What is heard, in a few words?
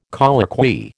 "colloquy", American